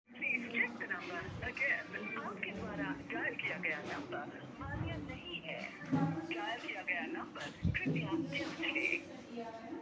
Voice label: call recording